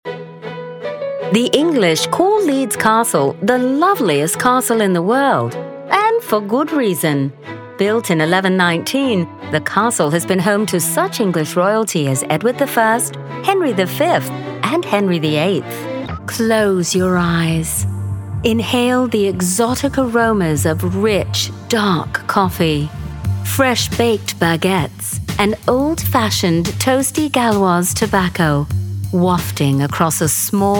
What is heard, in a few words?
STANDING Narration British